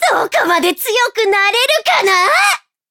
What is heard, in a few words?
levelup ja; Cookie Run